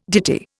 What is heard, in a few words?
"ditty", Indian